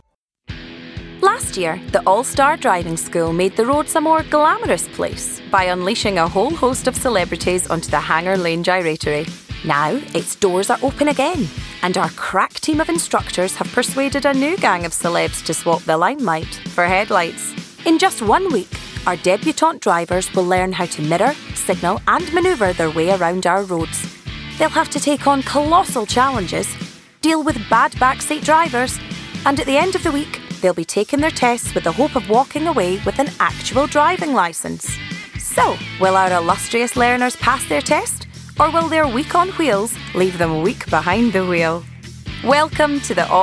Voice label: Narration